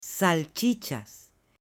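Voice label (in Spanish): "salchichas" Spanish, male; ALIMENTOS; AppLenguaje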